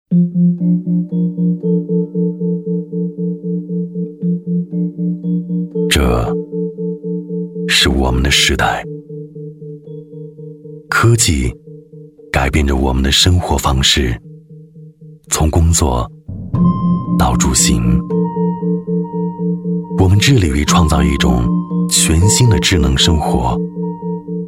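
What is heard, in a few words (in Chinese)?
国语 男声 宣传片 自然磁性科技感 大气浑厚磁性 沉稳; 康德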